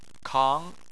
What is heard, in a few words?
"kang2", Chinese